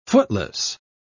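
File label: "footless", British English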